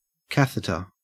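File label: "catheter", Australian English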